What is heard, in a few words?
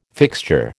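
"fixture", American English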